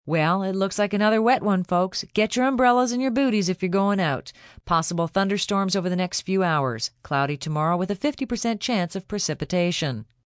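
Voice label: Weather Wet F, Broadcast, weather, female weather report, thunderstorms, news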